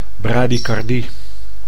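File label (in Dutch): "bradycardie", Dutch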